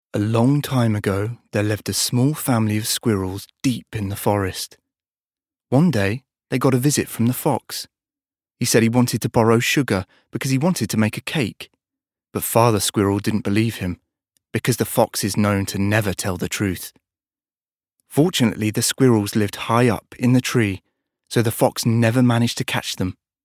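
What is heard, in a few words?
British childrens book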